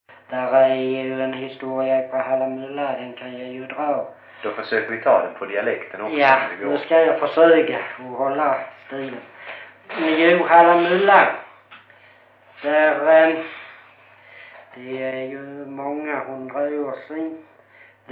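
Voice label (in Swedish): Brösarp Skåne, dialekter; Folkminnen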